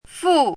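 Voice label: "fù", Mandarin Chinese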